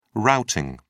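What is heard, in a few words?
"routing", British English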